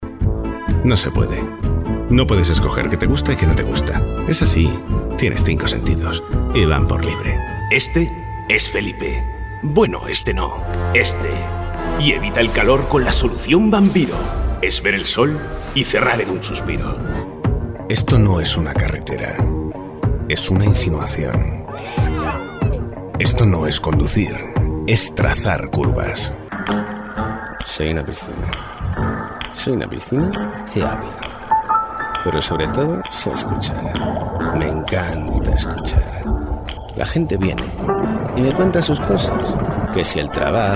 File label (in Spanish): MIX Publicidad